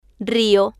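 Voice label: "rio", female, Spanish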